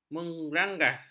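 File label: "merangas", Malay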